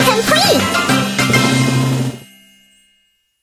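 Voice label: lab making success, English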